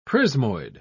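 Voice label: "prismoid", British English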